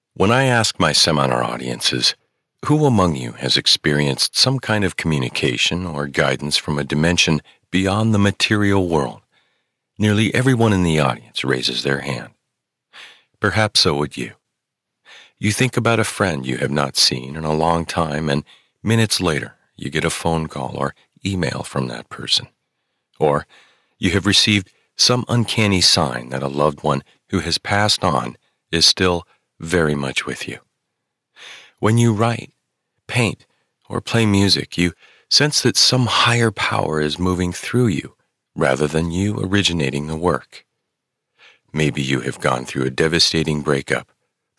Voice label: Nonfiction Straightforward

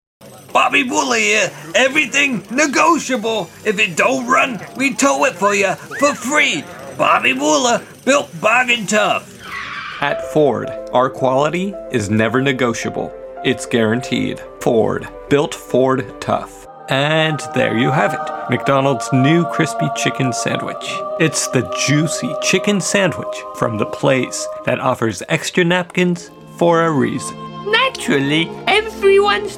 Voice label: Commercial

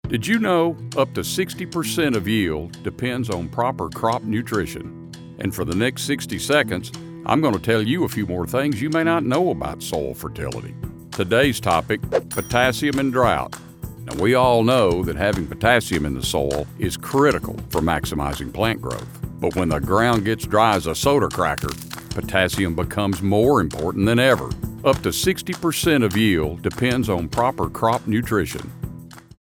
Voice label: Corporate Explainer

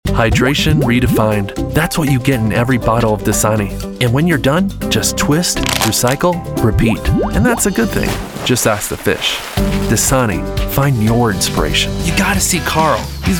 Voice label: Commercial

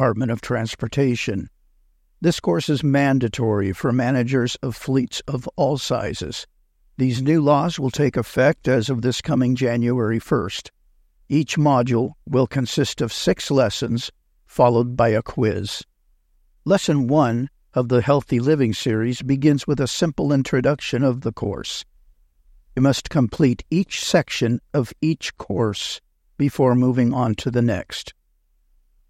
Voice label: Trucking Safety Modules

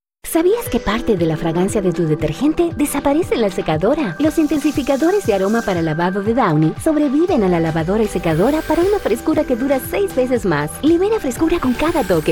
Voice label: Laundry product commercial Spanish US

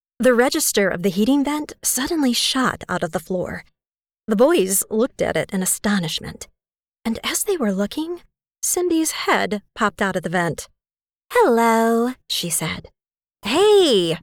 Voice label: middle grade sample

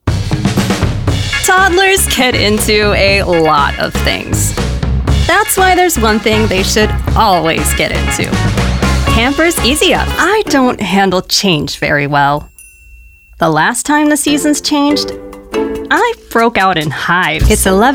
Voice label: Commercial

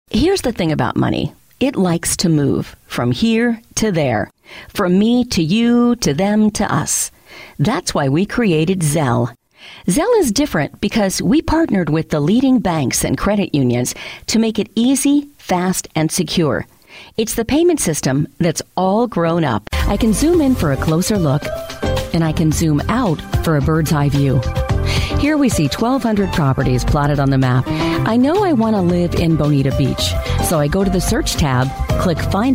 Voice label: Narration e Learning

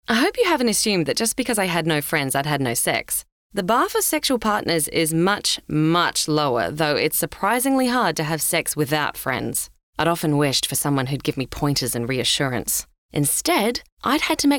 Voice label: Audiobook

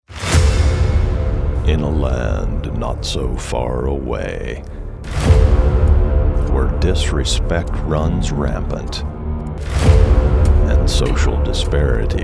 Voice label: Movie Trailer; Cracker Jack

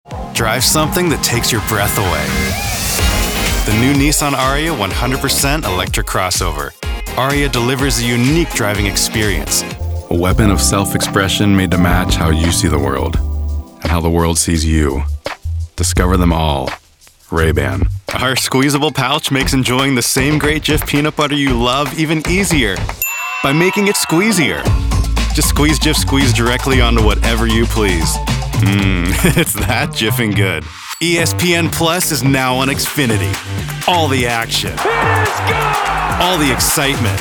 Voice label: Commercial

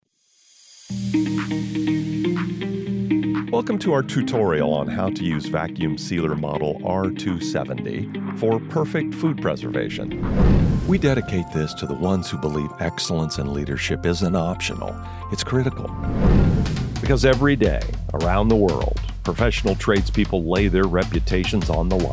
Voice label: Narration

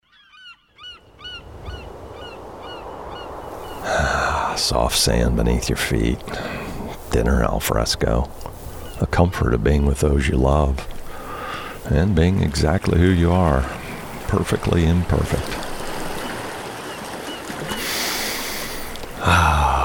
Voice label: Commercial; Home Depot

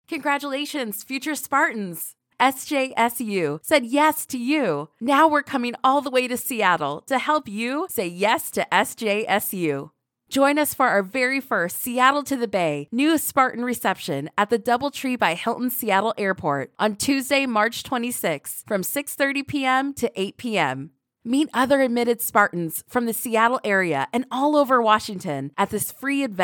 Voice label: Celebratory Video Upbeat & Energetic

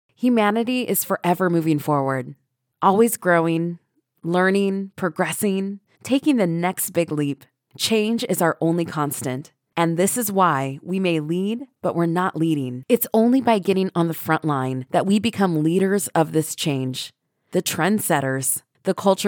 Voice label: Corporate Sizzle

